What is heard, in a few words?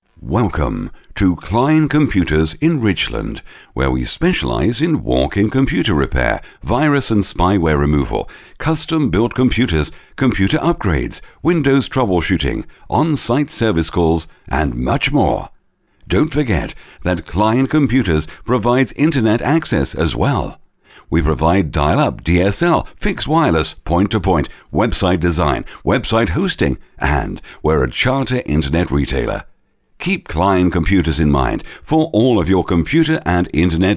MALE UK, radio; Cline Computers